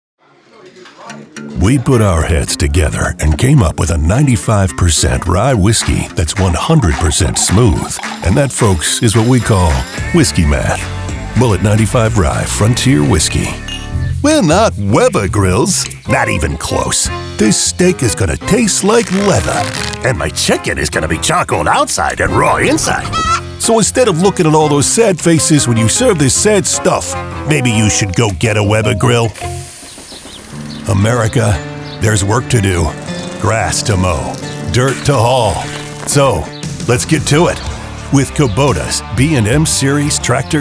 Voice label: COMMERCIAL